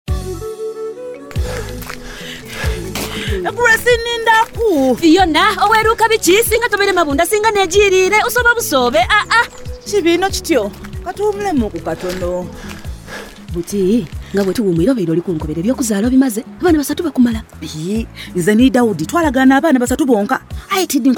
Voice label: IUD Radio Spot Lusoga

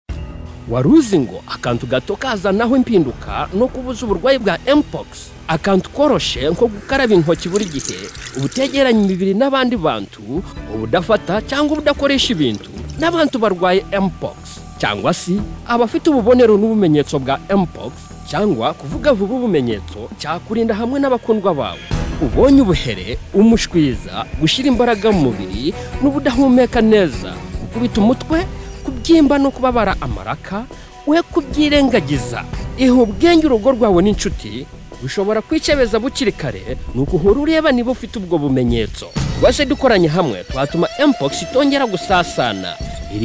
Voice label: Narrator RUFUMBIRA, radio spots; MPOX; The MPOX Campaign Safe Circles

